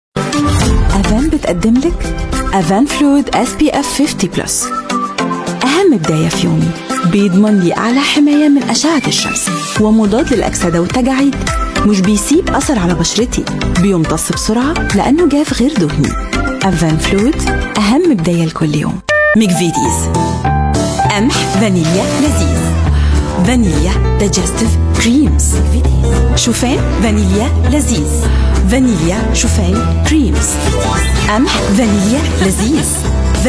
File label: arabic voice over female commercial